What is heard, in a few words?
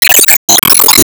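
Voice Shot Skill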